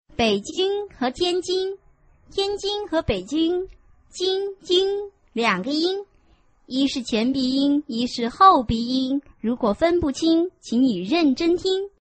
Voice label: chinese tongue twister